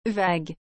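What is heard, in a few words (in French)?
"vague", French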